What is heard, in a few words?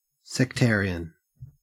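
"sectarian", Australian English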